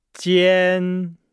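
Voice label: "jian", Chinese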